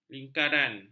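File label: "lingkaran", Malay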